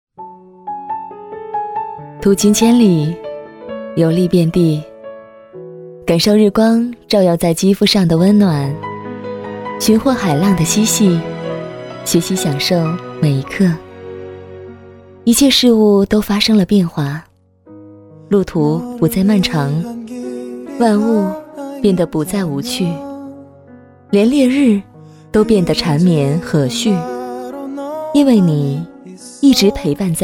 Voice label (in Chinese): 女 微电影, 国语女声配音; 歌瑞尔天猫